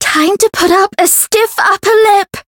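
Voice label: Voice encounter fear; pizzica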